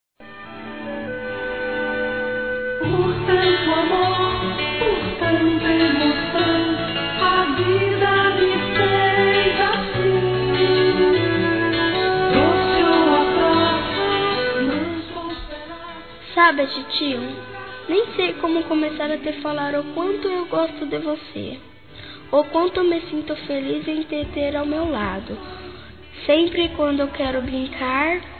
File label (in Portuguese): Aniversário Tio Infantil Feminino; Telemensagens